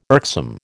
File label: "irksome", American